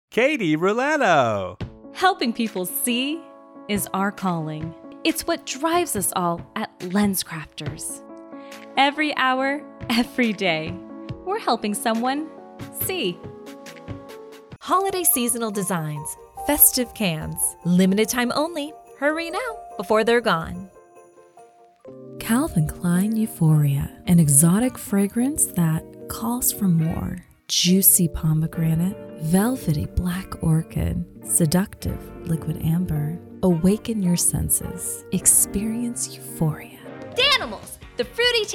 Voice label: Commerical

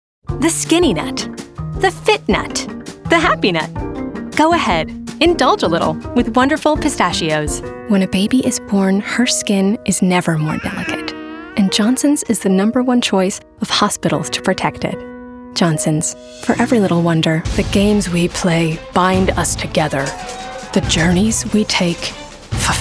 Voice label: Commercial

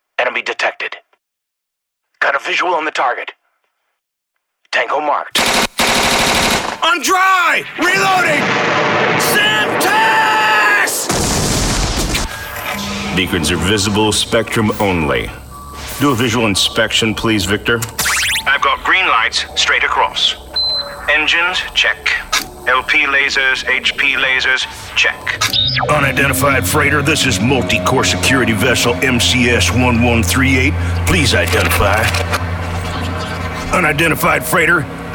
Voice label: Animation Gaming

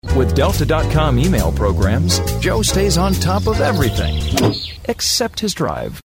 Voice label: Humorous storyteller; Delta

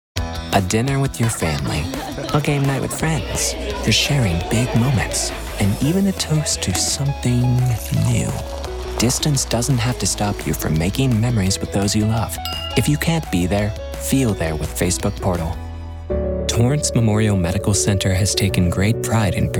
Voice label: Commercial

